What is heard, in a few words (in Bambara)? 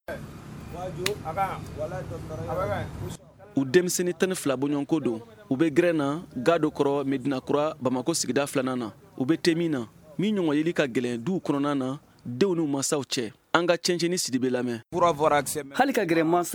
magazine bamanankan